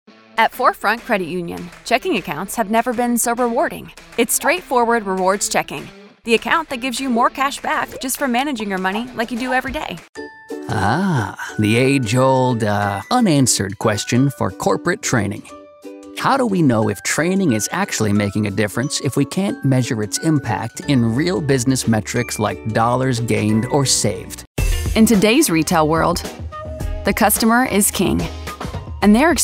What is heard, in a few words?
Explainer, en-NZ; Booth of Us